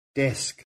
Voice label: "desk", English